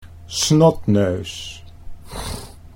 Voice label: "snotneus", Dutch